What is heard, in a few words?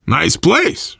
world farmhouse, voice, biker; Left 4 Dead 2; left4dead2 dlc3; survivor